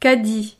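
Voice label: "caddie", French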